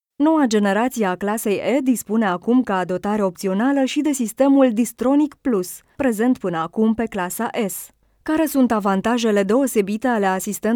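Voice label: Romanian Female, voiceover; Multilingual Voiceover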